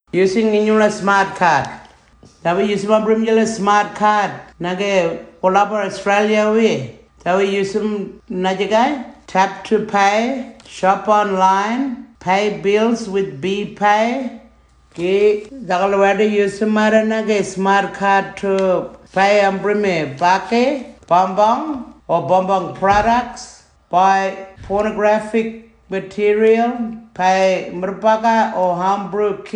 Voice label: tiwi; using your smartcard